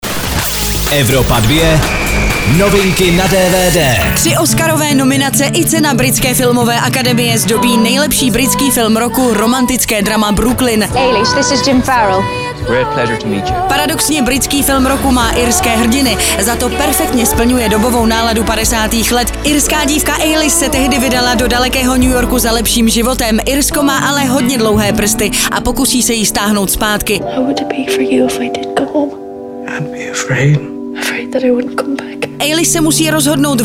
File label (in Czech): DVD NEWS; evropa2; Brooklyn